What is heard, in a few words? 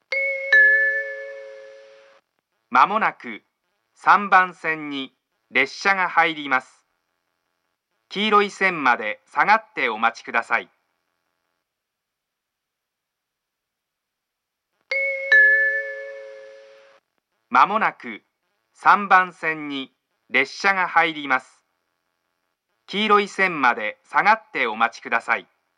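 platform 3 approaching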